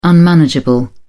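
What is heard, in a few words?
"unmanageable", English, British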